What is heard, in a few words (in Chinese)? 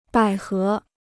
"百合", Chinese